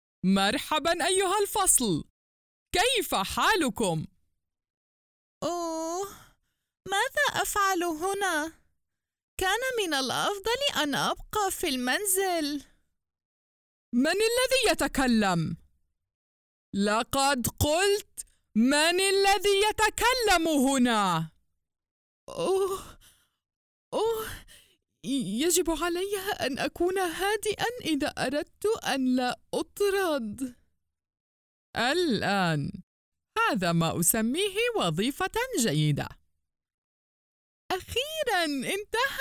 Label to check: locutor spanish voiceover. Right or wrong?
Wrong